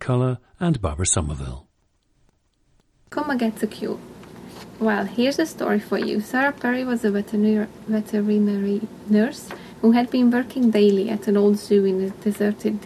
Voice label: hungary, dialects

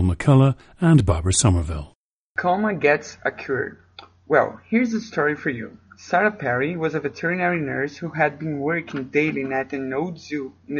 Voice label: brazil, dialects

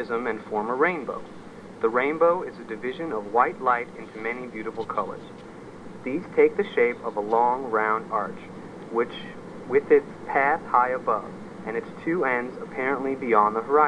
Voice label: missouri, dialects

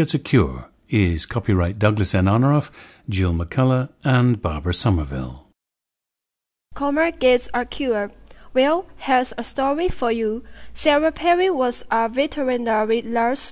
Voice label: sichuan

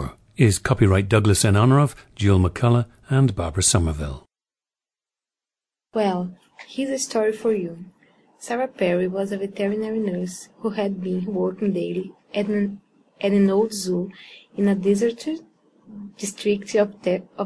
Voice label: brazil, dialects

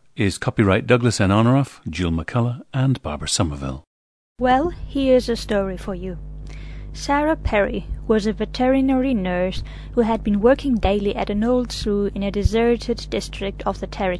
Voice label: spain

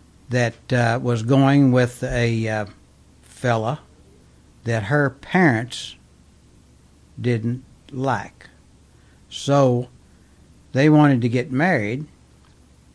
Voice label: kentucky, dialects

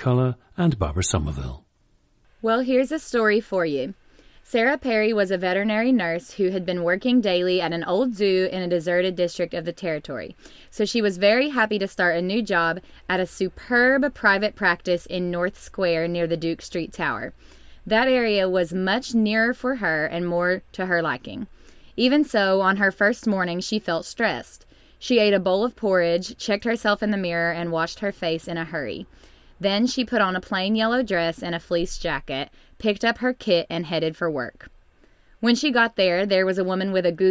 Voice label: kentucky, dialects

